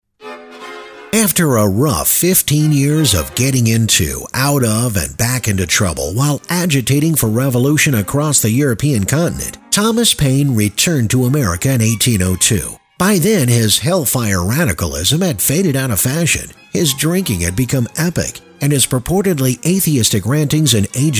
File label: Narration; voice over